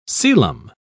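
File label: "celom", British English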